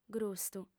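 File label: "grustu", female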